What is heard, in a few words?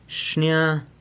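"xnia", red, San Lucas Quiaviní Zapotec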